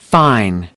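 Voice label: "fine", English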